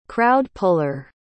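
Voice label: "crowd-puller", English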